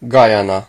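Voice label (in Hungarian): "guyana", Hungarian; gcompris; geography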